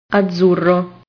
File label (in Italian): "azzurro", italian